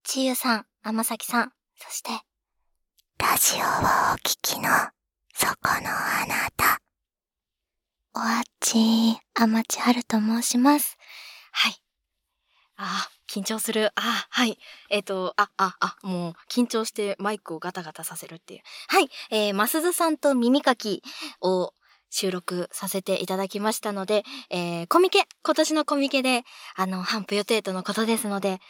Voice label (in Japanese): ラジオ 向け トーク